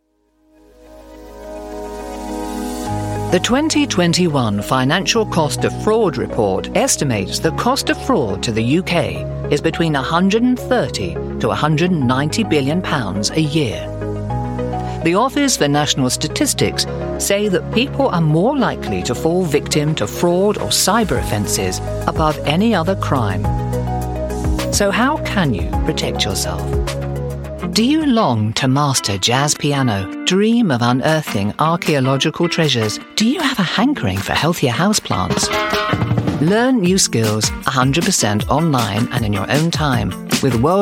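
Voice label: corporate, Warm Authoritative British Voice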